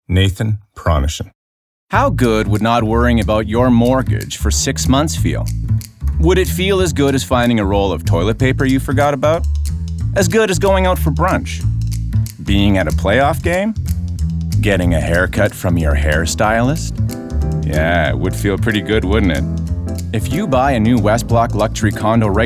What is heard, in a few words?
Commercial